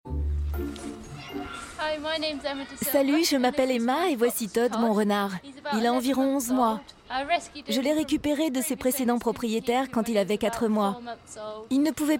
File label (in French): Voice over jeune Femme; Emma Et Ses Animaux